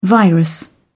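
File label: "virus", English, British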